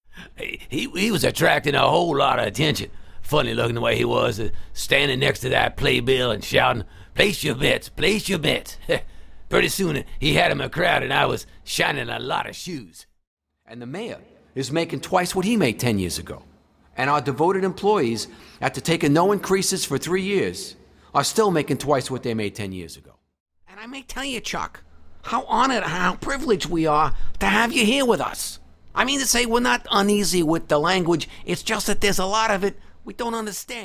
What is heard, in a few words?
VO Character